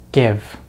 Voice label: "give", American English; easypronunciation